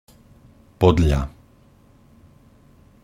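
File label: "podľa", Slovak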